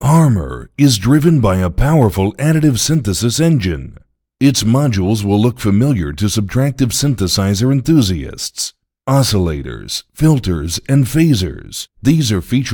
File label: speech engine; Harmor; Generators